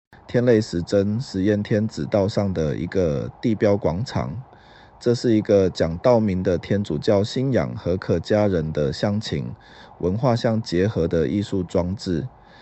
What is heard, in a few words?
Mandarin; Stone Shrine Circle